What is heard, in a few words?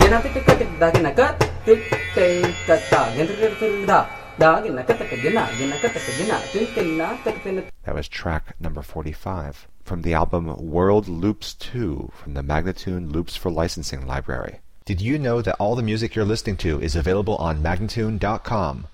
125 spoken, World Loops; MagnaLoops